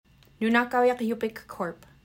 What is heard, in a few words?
"Nunakauiak", Yugtun, Toksook Bay; (noon-aw-KOW-yuck); Nunakauiak Yup’ik Corp.